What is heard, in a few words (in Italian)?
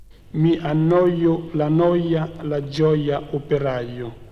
Semivocale I Roma